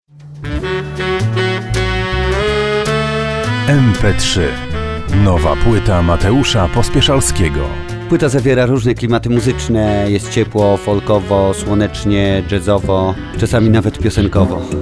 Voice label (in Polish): reklama